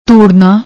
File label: "dhurrna", Punjabi; ਧੂੜਨਾ